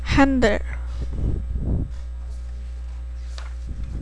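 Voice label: "handle", spoken word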